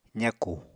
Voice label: "zakù", Apatani

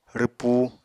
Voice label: "rwpu", apatani; Material Culture

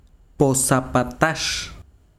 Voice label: besiro aldea, chiquitano

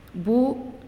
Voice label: "Breast", Naasioi; unitech

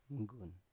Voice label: "mgun", Biak

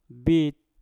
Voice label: "bit", Mpur; batu

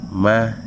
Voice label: "not", wahgi; lae unitech

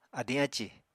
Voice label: "adiñ ácì", Apatani; [adĩ ácì] n. headache

